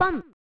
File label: "Hun!" (Female); pro mahjong kiwame; snes